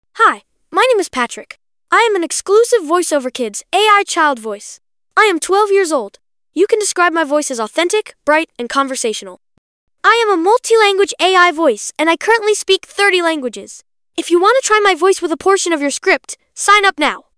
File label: boy12; voiceoverkids